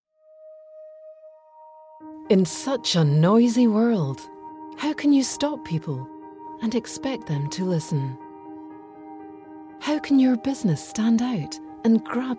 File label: Corporate